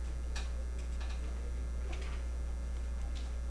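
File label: "can", spoken word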